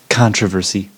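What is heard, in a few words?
"controversy", US English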